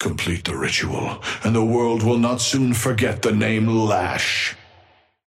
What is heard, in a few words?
male ally lash start; Patron